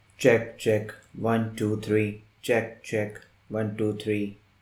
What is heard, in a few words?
Rode NT USB Microphone Check